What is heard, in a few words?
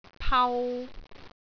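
"pau3", Cantonese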